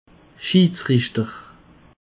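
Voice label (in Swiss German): "schidsrichter", Alsatian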